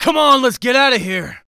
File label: Escape, Human Male; zps; HumanMale-01